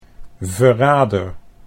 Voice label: "verrader", Dutch